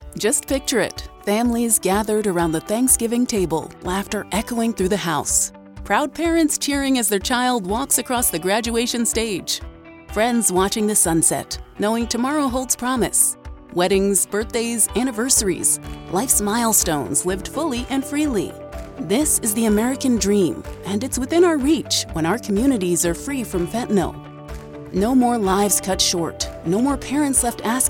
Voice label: Radio Music